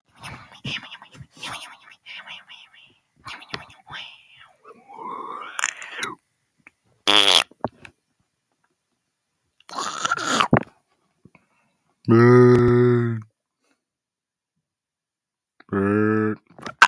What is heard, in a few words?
voice message